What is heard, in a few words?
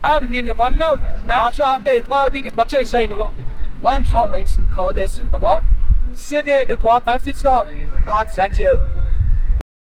news caster reads report